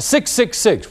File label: 6 6 6 male; Voice; numbers and letters